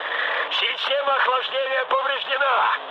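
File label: Russian damaged water mood med, speech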